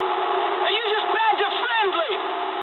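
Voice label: English player killed ally mood med; speech; War Thunder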